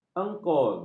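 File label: "engkol", Malay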